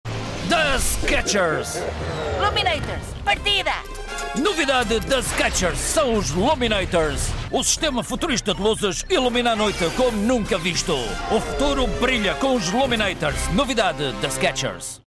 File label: Portuguese EU